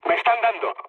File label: aircraft damaged, mood low, speech; War Thunder